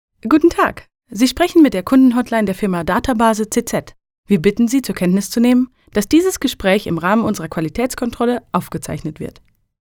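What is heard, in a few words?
German, female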